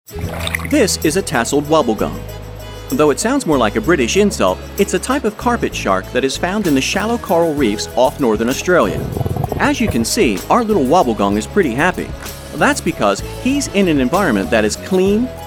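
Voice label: Mid-Atlantic Male Voice Over; Commercial; Chevron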